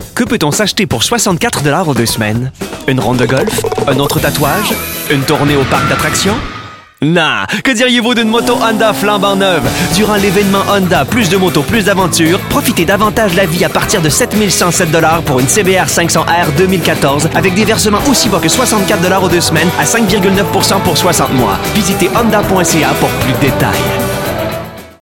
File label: voice acting